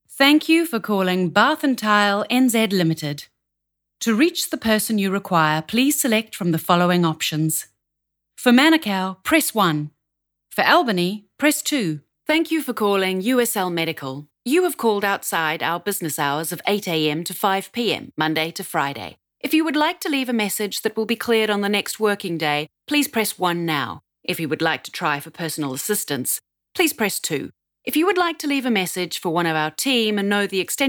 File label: NZ Female Voice Talent Phone